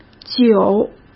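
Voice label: "久", Mandarin Chinese; chinese flashcards; hsk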